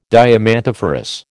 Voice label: "diamantiferous", American